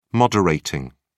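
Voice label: "moderating", British English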